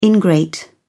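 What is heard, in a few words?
"ingrate", British English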